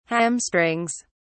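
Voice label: "hamstrings", British English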